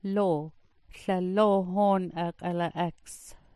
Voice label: "loo", smalgyax